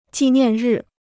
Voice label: "纪念日", Chinese